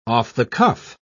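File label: "off-the-cuff", British English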